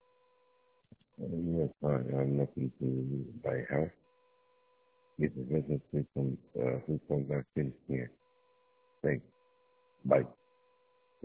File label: voicemail